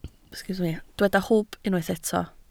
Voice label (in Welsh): "hwb", Welsh